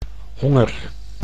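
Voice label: "honger", Dutch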